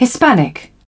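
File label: "hire", British English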